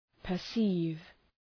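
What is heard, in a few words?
"perceive", English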